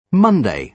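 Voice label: "monday", British English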